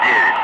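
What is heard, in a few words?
Russian speech, Ka-50 Wingman; Digits; DCS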